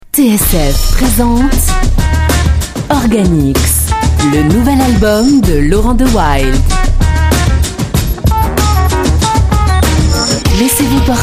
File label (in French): FRA PUB